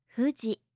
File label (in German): "fuji", japanische maedchennamen